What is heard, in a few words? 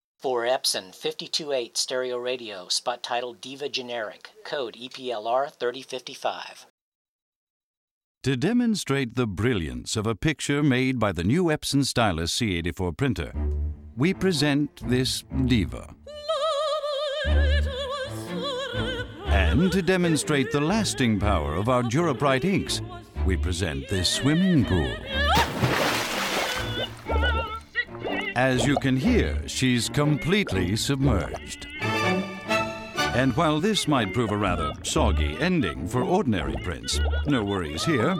commercial